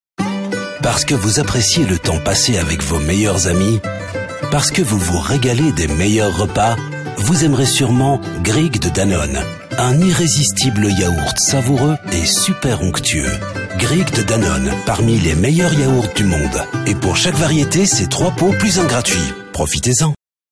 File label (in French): FR COMM; Greek Danone